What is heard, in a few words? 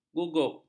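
"gugup", Malay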